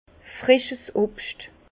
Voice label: "frischs", Bas Rhin; fruits frais; vin

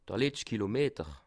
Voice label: "letscht", alsace

